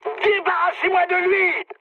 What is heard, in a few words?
aircraft damaged mood high, speech fr; War Thunder